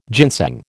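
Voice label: "ginseng", American English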